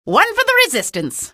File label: kill, female; video games; npc; source engine; episodic; episode 1